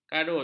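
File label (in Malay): "karut", Malay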